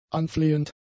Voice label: "anflehend", German; adjectives

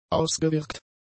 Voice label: "ausgewirkt", German; adjectives

